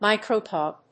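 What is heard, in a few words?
"micro tube", English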